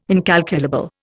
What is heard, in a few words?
"incalculable", Indian